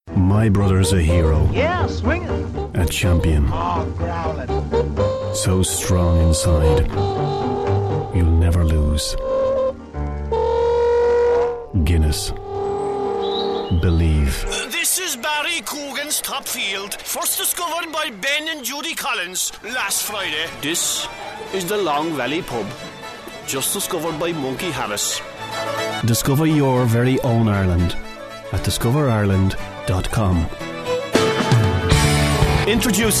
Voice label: Irish; 20s-30s. Male. Irish.

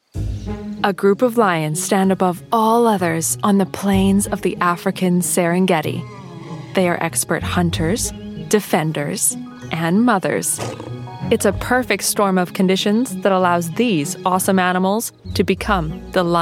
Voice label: Documentary, american

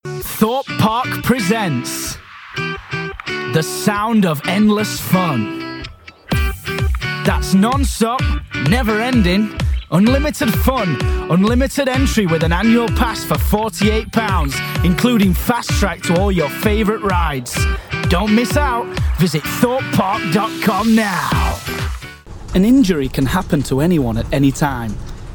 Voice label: Commercial

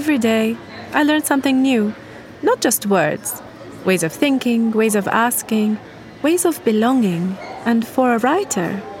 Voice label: English Arabic Accent; Arabic (Iraq); Middle Eastern; Male

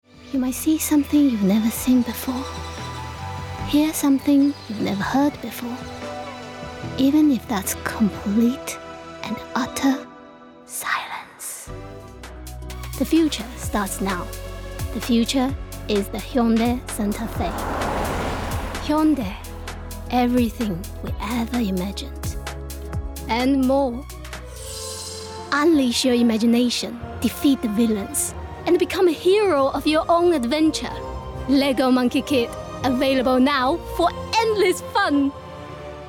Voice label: English VO Reel

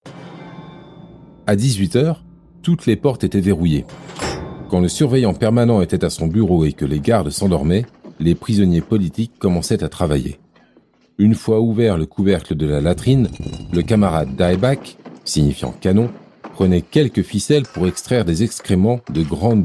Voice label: French

